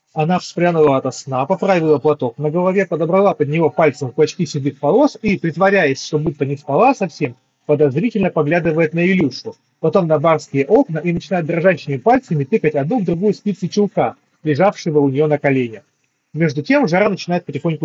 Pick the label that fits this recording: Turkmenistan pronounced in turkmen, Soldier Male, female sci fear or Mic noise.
Mic noise